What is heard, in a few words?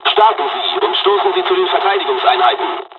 takeoff, dialogs german; War Thunder